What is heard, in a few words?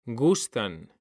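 "gustan", Spanish, male; mekanta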